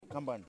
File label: "Grass", Yimas